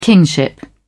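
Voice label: "kingship", British English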